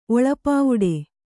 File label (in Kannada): "ಒಳಪಾವುಡೆ", kannada